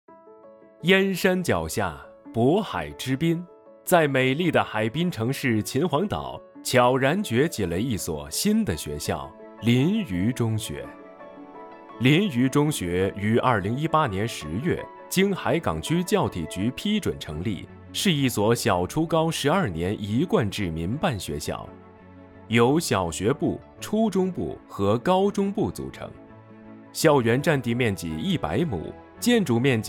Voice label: 中学 发展 纪实 试音; 临榆中学

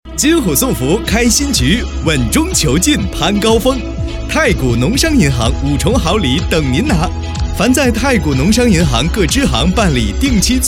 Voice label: 广告 激情; 广告农商银行激情

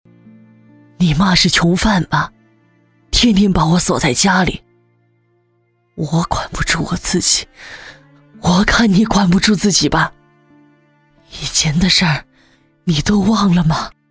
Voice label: 老婆婆

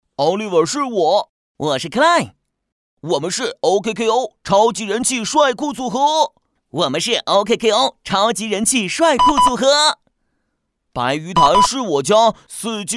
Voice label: 动画角色

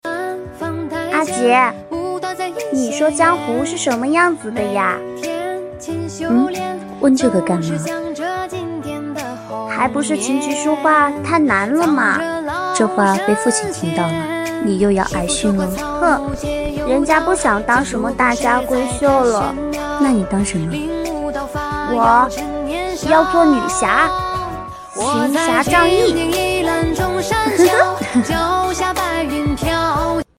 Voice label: 一人多角阿姐阿妹

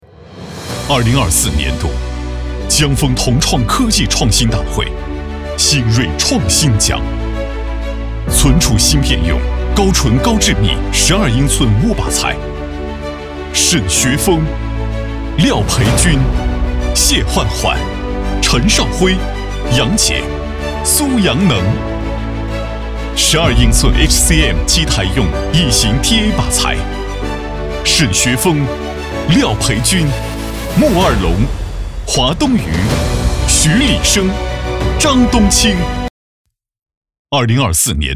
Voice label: 男颁奖

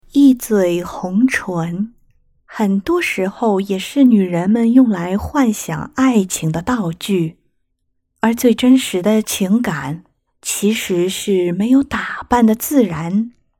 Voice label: 化妆品广告

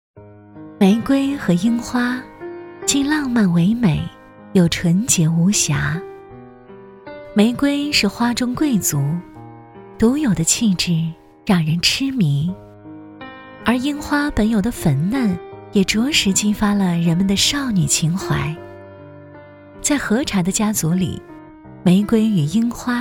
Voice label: 自然柔美 女; 合茶hy

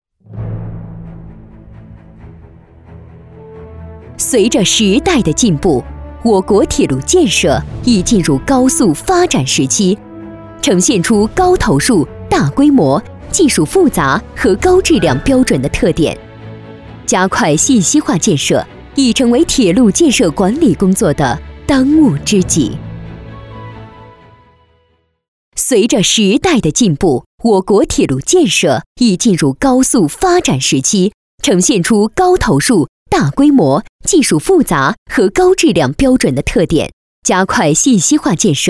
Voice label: 激情大气; 大西客专

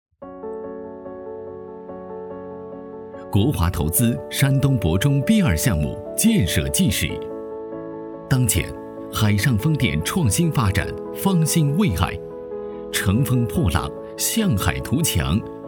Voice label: 企业宣传低沉磁性建设纪实

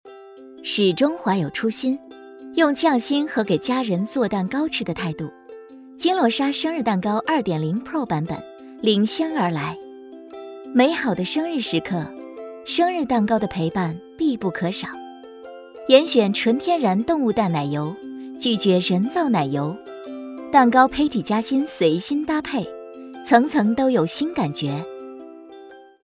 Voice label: 美食 广告

